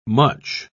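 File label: "mutch", British English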